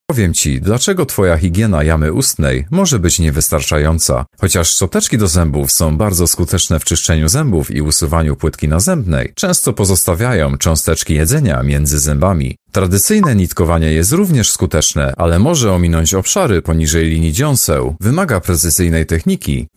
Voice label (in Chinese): 波兰语男声